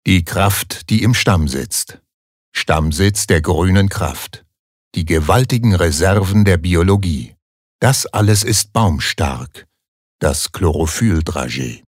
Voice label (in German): Werbung Sanft